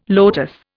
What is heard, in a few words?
"lotus", Indian